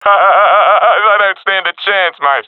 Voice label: round winning, professional epic; Counter Strike Model Pack; CS:GO